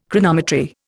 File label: "Chronometry", Indian